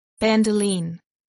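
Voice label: "bandoline", English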